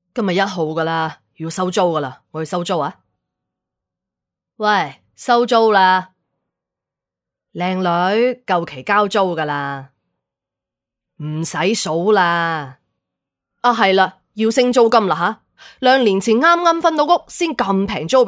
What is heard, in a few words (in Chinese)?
粤语 包租婆